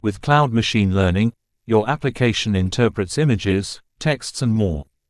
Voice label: en gb